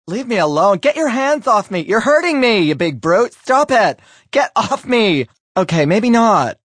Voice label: Hands Off Me, Male; Sex; VoiceBox

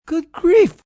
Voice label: Good Grief, Reaction, negative, male; Exclamation; Voice Box

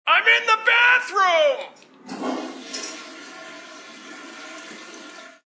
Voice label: In The Bathroom, Male

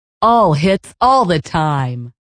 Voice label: All Hits Time, Female; Radio; VoiceBox

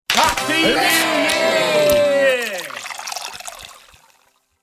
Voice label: Male voice shouting ‘Happy New Year’ with fanfare; shouts of ‘Hooray’ and drink sounds; New Years Eve

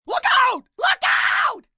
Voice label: Look Out M, male; Yelling; Calls, caution; VoiceBox

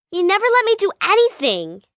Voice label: Whine Never Let Me, 1 girl, 9 yrs; Whining Sound Effects; VoiceBox; Twistedtracks

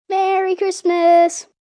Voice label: Merry Xmas Kid, child, boy; Occasion, event,holiday; Voice Box

